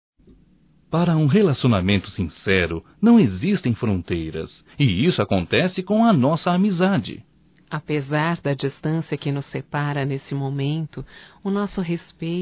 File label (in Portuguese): Amiga distante duas vozes, Só Voz; Telemensagens; AMIZADE; masc